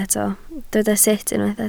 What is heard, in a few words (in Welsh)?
"sut", Welsh